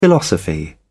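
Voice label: "philosophy", British English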